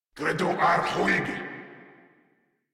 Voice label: Reload, Grineer Male

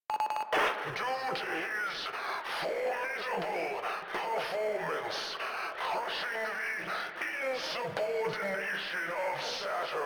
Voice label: Announcements, Radio Interceptions

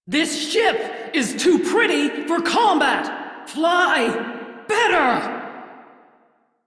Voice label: Crew Ship Low, Female; Railjack Crew; Steel Meridian

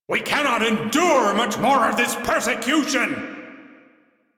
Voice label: Crew Ship Low, Male; Dialog; en; Warframe; Railjack Crew; Arbiters Hexis

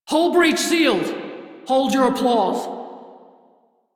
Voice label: Crew Fix Breach, Female; Dialog; en; Warframe; Railjack Crew; Steel Meridian

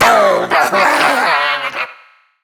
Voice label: Treasure Box Pain; Warframe

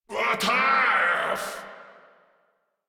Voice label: Attack, Grineer Male; Warframe

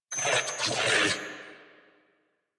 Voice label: Surprised Grineer Male; New War; Narmer

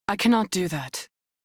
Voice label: Crew Reject, Female; Dialog; en; Warframe; Railjack Crew; New Loka

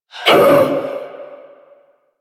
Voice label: Pain; Warframe; Generic Missions; Stalker

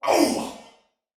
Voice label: Pain, male soldier; Dialog; Barks; Battle; Sinecure

